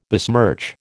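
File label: "besmirch", American English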